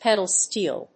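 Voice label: "pedal steel", English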